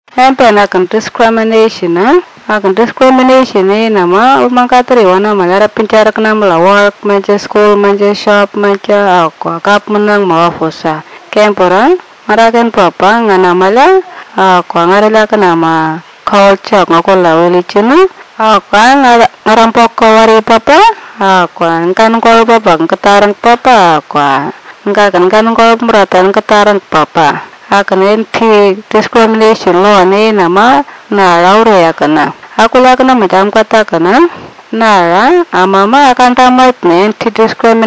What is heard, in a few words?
anindilyakwa